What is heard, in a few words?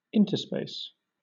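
"interspace", British English; pronunciations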